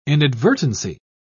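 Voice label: "inadvertency", British English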